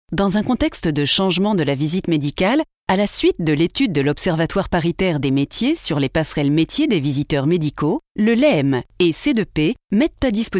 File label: E-LEARNING